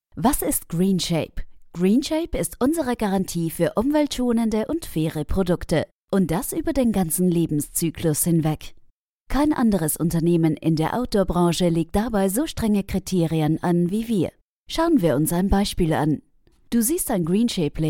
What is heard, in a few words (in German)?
Erklärfilm GERMANY